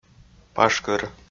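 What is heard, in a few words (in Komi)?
"пашкыр", Komi, male; audio dict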